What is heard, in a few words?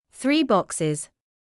"three boxes", English